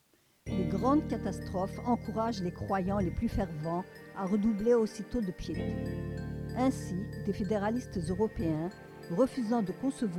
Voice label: news